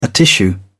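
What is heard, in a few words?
"atishoo", English, uk British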